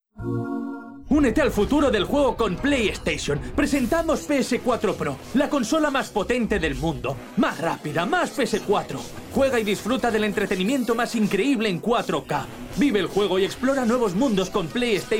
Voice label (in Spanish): anuncio